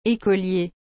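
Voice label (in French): "ecolier", French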